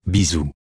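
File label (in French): "bisou", French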